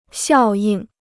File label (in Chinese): "效应", Chinese